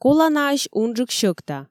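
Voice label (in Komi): "коланаысь унджык сьӧкта", Komi, female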